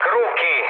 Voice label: enemy detected mood low, speech it; War Thunder